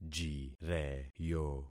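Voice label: "jireyo", concatenated syllables; Affixing experiment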